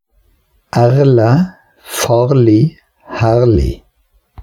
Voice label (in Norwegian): konsonanten r rl bergen